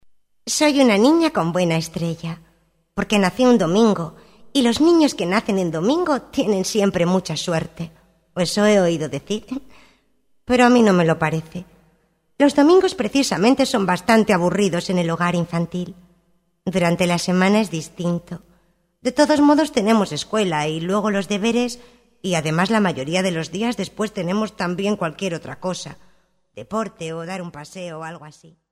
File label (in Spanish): Infantil